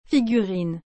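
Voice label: "figurine", French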